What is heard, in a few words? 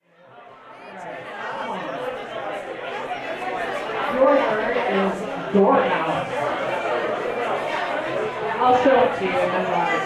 Drunkards Spelling Bee Interviews and Noises